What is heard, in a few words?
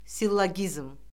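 "sillogizm", Azerbaijani; Orfoqrafiya Lüğəti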